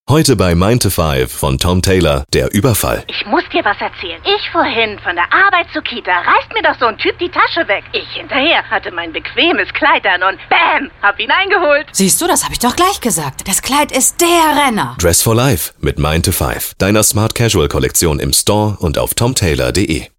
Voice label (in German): Radiospot; Tom Tailor; Mine2Five; LOFT VOICES